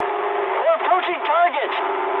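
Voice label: English near waypoint mood high; War Thunder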